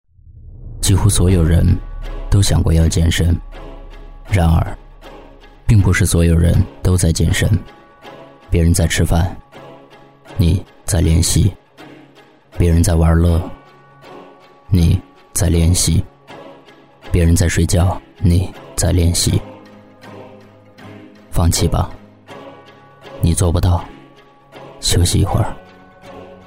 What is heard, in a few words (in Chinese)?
男43 广告 健身广告